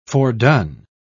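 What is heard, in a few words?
"fordone", en, uk British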